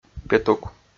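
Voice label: "петук", Komi, male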